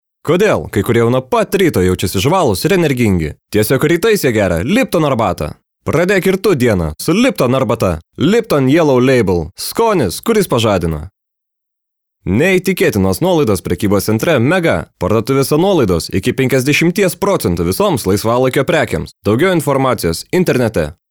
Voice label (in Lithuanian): reklama